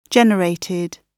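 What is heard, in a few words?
"generated", British English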